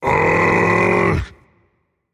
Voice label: seriously rage; chaac

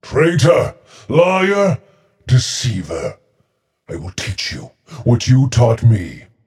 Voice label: taunt directed; set; smite; osiris

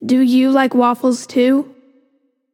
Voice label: taunt directed; smite; scylla; bacchus

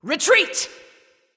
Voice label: vox retreat; smite; arachne

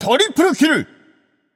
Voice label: alert notify kill, japanese; caster

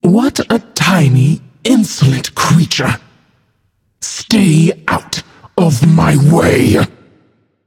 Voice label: taunt directed; smite; arachne; ratatoskr

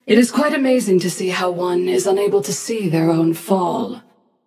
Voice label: taunt passion; chaac

